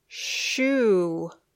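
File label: "shoe", English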